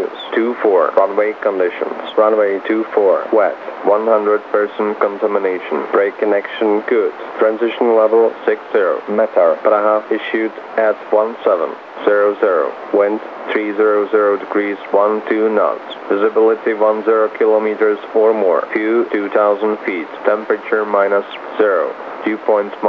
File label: LKPR ATIS 122,15MHz